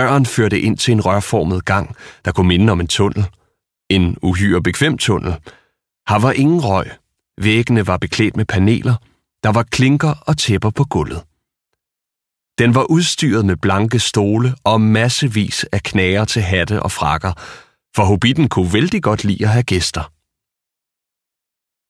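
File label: Danish Audiobook Male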